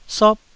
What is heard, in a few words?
"sop4", Taiwanese